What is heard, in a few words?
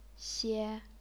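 "xie", chinese pinyin, first tone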